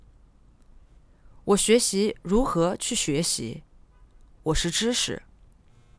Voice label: I Am Knowledge, Chinese Female

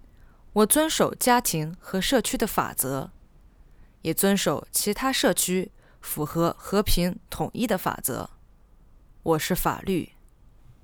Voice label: I Am Law Chinese Female

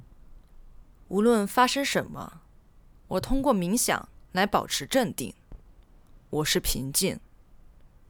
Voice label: Chinese Female; I Am Peace

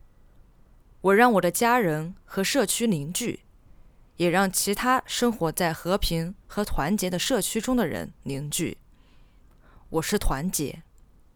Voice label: Chinese Female; I Am Unity

